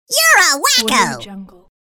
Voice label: cartoon voice you're a whacko